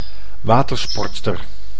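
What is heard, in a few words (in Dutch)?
"watersportster", Dutch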